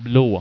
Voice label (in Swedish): "blaa", Swedish